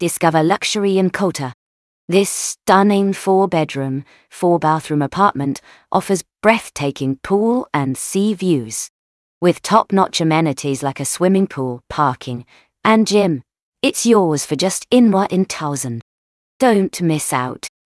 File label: narration audio